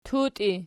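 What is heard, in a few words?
"tutii" nominative, Archi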